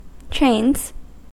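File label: "trains", US English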